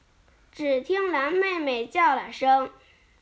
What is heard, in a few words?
Chinese Children Speech, Mobile phone